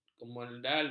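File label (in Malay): "kemendalu", Malay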